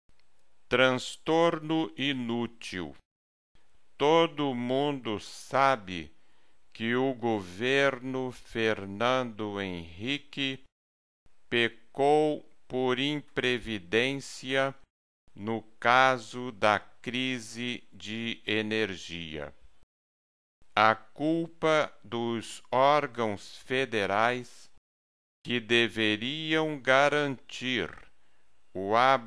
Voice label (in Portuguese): em ritmo lento; transtorno inútil